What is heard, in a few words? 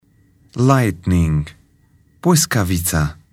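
"lighting", English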